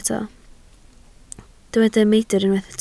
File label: "meidr", Welsh